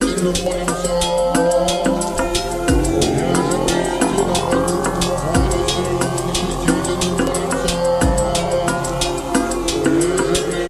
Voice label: 090 F spoken; Loops For Licensing; Electronica Loops 1